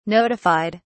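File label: "notified", British English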